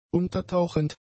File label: "untertauchend", German; adjectives